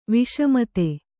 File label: "ವಸುಮದ", kannada